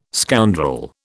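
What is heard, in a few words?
"scoundrel", British English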